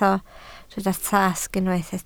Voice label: "tasg", Welsh

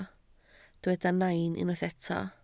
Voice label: "nain", Welsh

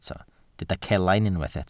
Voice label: "celain", Welsh

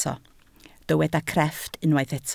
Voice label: "crefft", Welsh

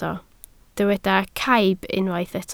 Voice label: "caib", Welsh

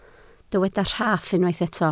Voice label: "rhaff", Welsh

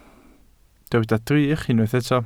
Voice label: "drych", Welsh

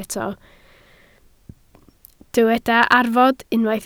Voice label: "arfod", Welsh

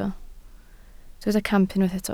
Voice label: "camp", Welsh

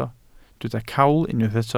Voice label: "cawl", Welsh

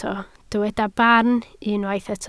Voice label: "barn", Welsh

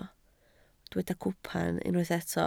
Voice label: "cwpan", Welsh

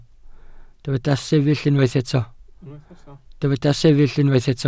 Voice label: "sefyll", welsh

